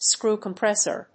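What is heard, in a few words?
"screw compressor", English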